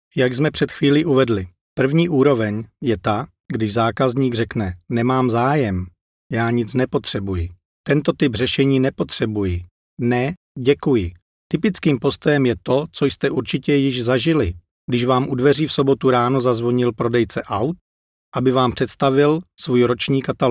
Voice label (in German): Nachvertonung Film Sprecher Tschechisch